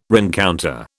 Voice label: "rencounter", British

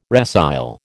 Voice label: "resile", American

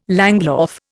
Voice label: "langlauf", Indian

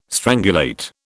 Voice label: "strangulate", British

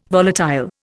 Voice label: "volatile", Indian

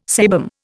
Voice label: "sebum", Indian

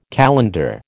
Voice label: "calender", American

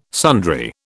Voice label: "sundry", British

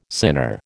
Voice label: "sinner", American

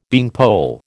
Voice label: "beanpole", American

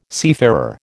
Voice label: "seafarer", American

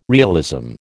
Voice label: "realism", American

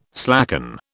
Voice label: "slacken", British

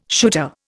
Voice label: "shooter", Indian

